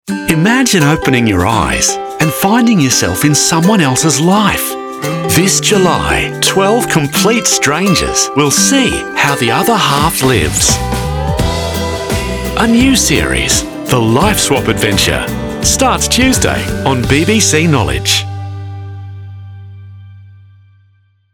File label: adventure promo; LIFESWAP